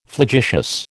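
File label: "flagitious", American English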